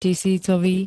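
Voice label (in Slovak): "tisícový", Slovak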